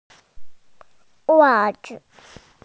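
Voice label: "wa zi" Chinese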